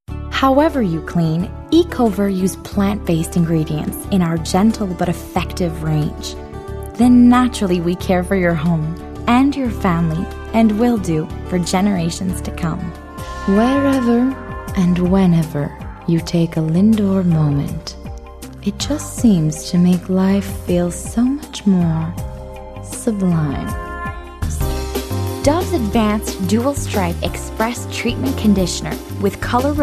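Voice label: French Canadian English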